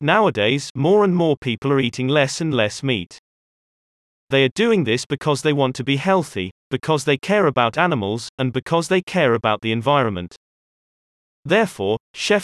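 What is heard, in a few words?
British; impossible burger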